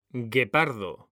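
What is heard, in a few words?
"guepardo", Spanish, male; mekanta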